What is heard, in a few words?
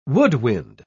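"woodwind", spoken word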